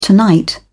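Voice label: "tonight", British English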